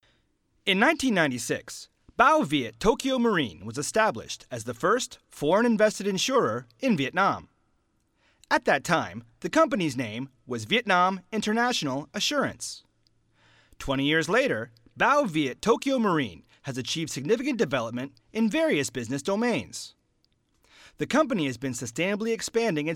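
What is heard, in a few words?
English voice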